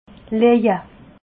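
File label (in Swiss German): "leje", Alsatian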